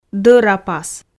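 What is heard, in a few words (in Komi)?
"дӧрапас", Komi, female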